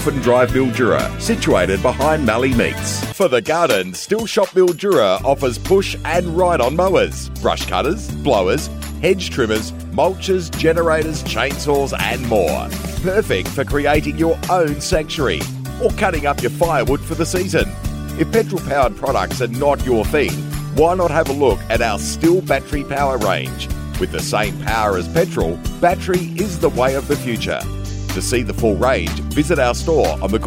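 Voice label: Australian English, Commercial; GoLocalise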